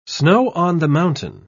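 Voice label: "snow-on-the-mountain", British English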